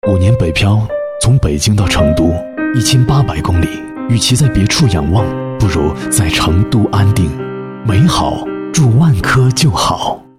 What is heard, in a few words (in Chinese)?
男 广告; 万科10秒